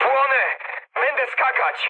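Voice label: on fire, mood med, Polish speech; War Thunder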